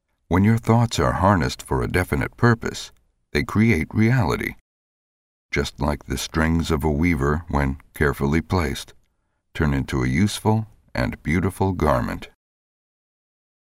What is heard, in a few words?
Audiobook The art of positive thinking; Optimism